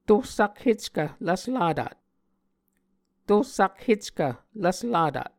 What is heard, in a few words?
"tor sakheckv lvslatat", Muscogee